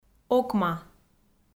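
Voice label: "окма" Komi-Zyrian, female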